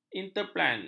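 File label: "interpelan", Malay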